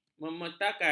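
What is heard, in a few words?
"memetakan", Malay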